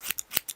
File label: aggressive; roylott